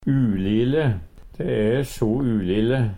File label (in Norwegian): "ulile", Numedalsmål; De e so ulile.; Adjektiv